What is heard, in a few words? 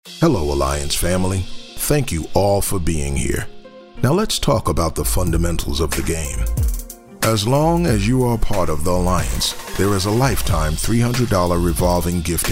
english male voices american accent